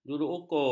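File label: "juruukur", Malay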